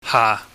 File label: "ha", chinese